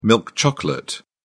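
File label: "milk chocolate", British English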